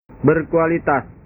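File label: "berkualitas", Indonesian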